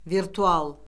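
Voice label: "virtual", Azerbaijani; Orfoqrafiya Lüğəti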